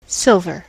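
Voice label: "silver", US English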